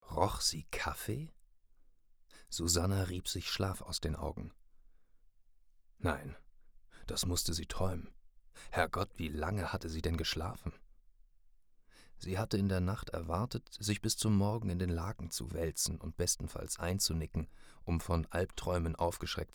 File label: german audiobook